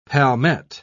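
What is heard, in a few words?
"palmette", British English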